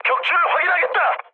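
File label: Korean player killed enemy mood med, speech; War Thunder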